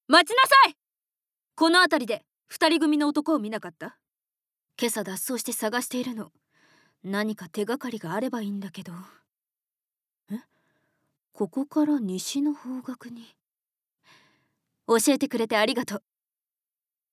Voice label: Woman